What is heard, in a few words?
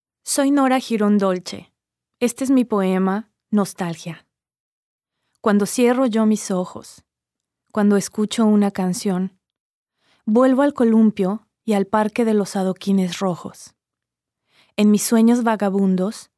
Spanish; Poetry-on-Buses; Nostalgia